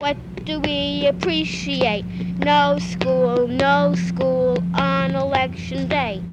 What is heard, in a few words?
Political street speakers and kids